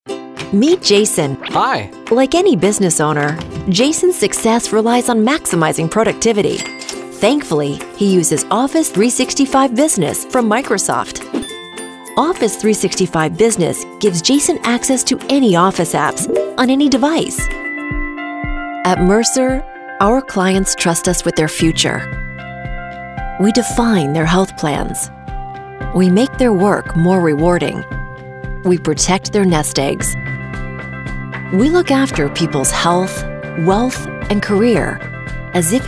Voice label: Corporate Narration